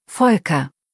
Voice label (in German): "Volker", deutsch, jungennamen